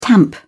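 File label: "tamp down", British English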